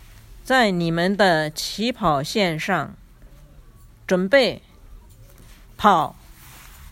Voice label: chinois a vos marques pret partez

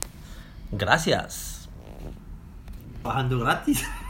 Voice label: espagnol merci

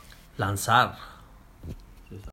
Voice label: espagnol lancer

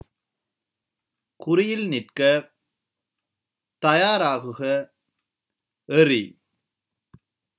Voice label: a vos marques prets lancez traduction tamoul

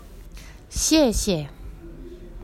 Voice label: chinois merci

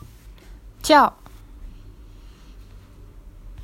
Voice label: chinois sauter

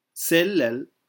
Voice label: partir traduction tamoul

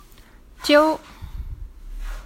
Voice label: chinois lancer

